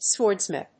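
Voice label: "Swordsmith", English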